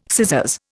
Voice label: "scissors", Indian

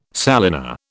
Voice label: "salina", British

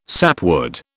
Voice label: "sapwood", British

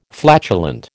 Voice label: "flatulent", American

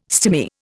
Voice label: "stimy", Indian

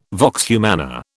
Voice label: "vox humana", British

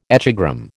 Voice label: "echogram", American

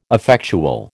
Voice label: "effectual", American

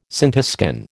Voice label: "scintiscan", American

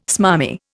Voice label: "smarmy", Indian

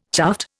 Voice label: "chuffed", Indian

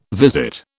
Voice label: "visit", British

